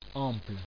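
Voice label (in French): "ample" French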